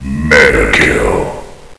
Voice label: mega kill, announcer